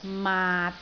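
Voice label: "maat6", Cantonese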